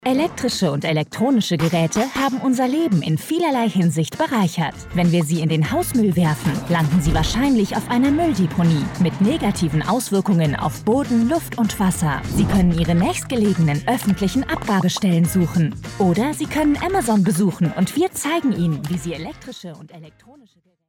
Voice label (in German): Erklaerfilm; Amazon